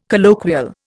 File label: "colloquial", Indian